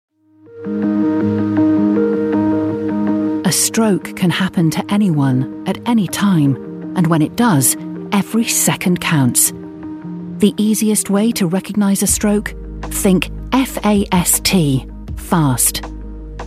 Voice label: medical explainer